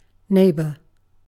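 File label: "neighbour", English, British accent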